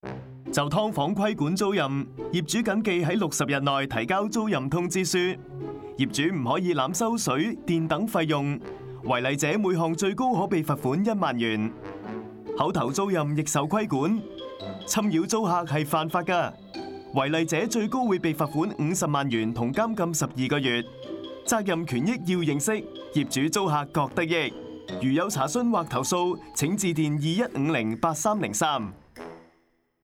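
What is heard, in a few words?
Tenancy Control of Subdivided Units Radio Cantonese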